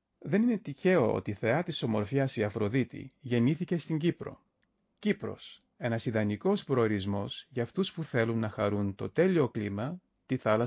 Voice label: greek text